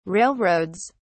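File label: "railroads", British English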